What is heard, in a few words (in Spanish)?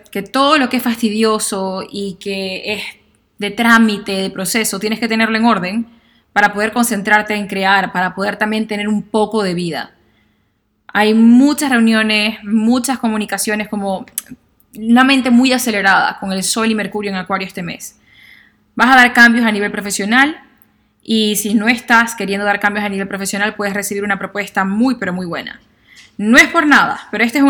sagitario masc